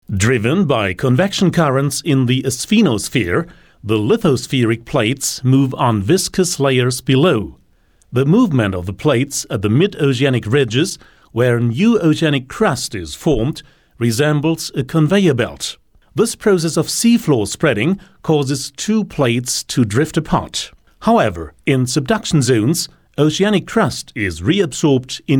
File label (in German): Englisch Documentation; The asthenosphere